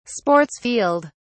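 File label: "sports field", British English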